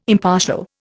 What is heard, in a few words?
"impartial", Indian